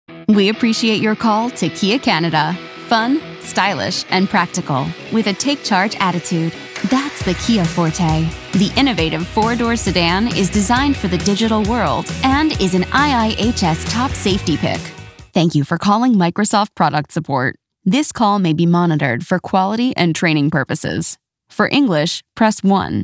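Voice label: interactive voice response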